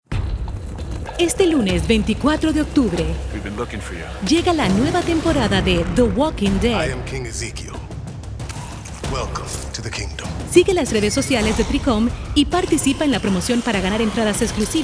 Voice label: spanish latin american tv shows, voice actors